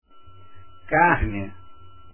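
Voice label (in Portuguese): "carne", Portuguese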